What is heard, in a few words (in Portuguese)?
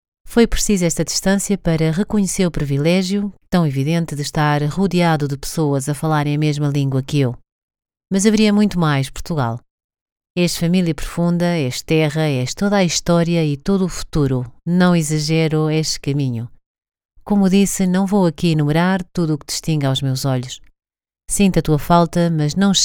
portugues